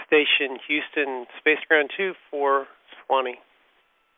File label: Space to Ground; Expedition 39